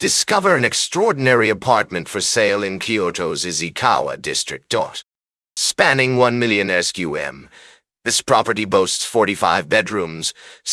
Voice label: narration audio